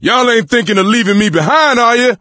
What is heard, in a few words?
call for rescue, voice; Left 4 Dead 2; coach